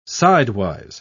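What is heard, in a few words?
"sidewise", British English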